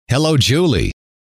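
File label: "hello", male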